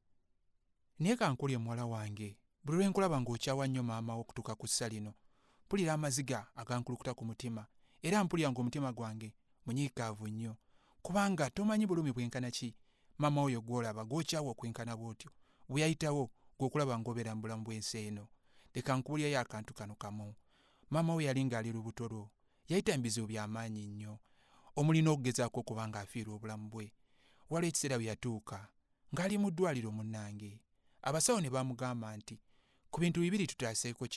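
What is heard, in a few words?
Luganda, General; GoLocalise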